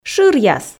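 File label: "шыръяс", Komi, female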